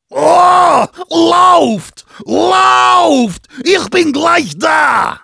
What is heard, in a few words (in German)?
Heavy special completed, de